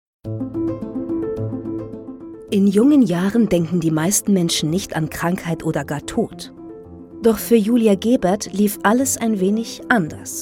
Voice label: Image kurz Erwachsen; Immuntherapie Uniklinik

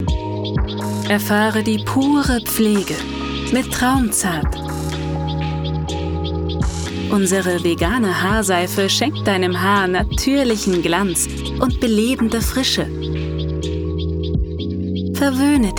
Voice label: Werbung zart

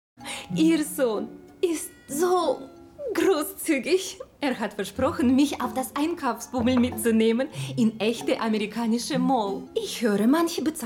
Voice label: synchron mix

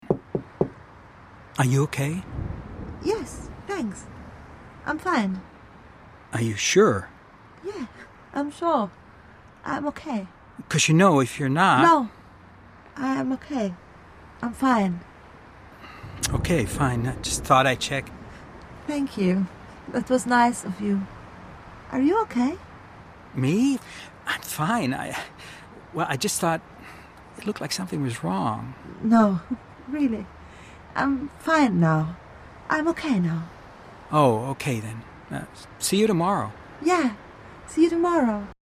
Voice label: hoerspiel englisch

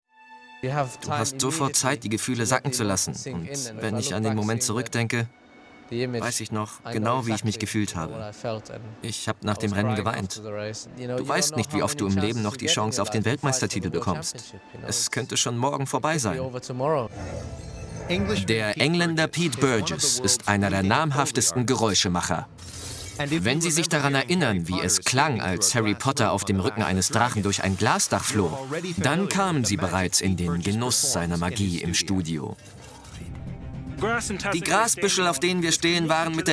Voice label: Doku VO, Doku Voice Over, German; Germany

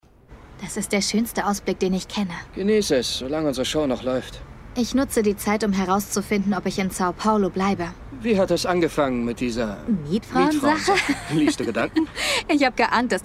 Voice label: Synchron romantisch; LOFT VOICES

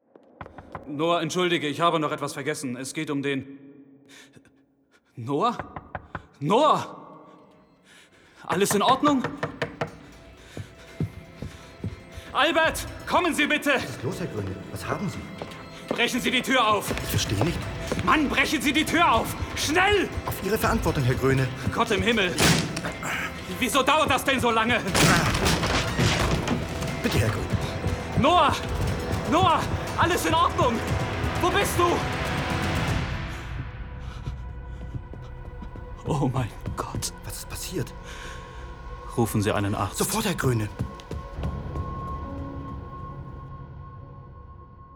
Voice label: Hoerspiel Aufregung

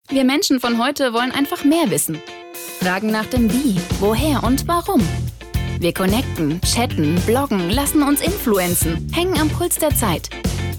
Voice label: Werbung frech

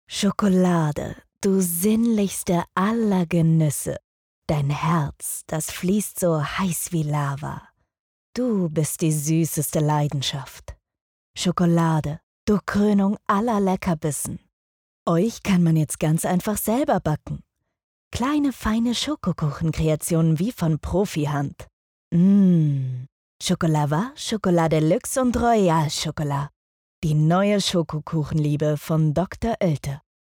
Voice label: Werbung Food; LOFT VOICES

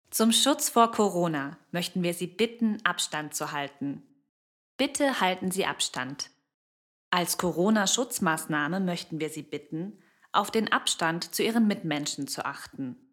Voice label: Corona Durchsage; Hessischer Landtag

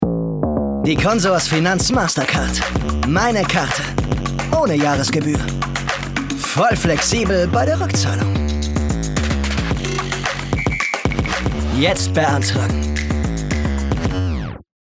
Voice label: WERBUNG Finanz Mastercard; Consors

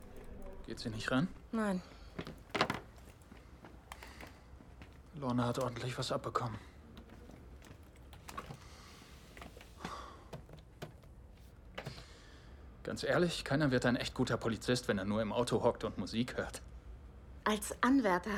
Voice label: liebenswert sensibel

